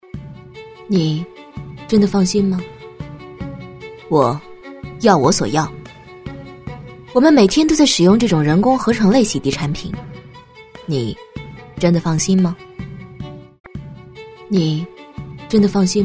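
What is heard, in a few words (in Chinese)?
国语 女声 广告【冷淡酷感率性】 激情激昂 积极向上 亲切甜美; 要我所要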